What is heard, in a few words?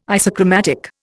"isochromatic", Indian